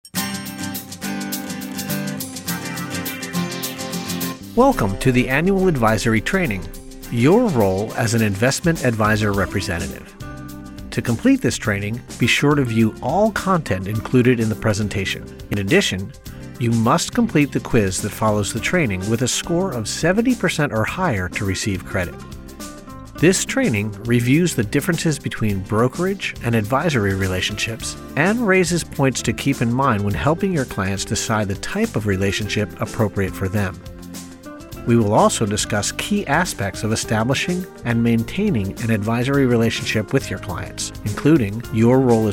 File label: E-Learning